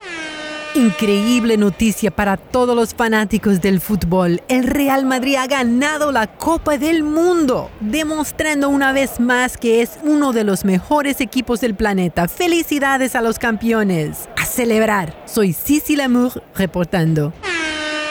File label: Spanish, Commercial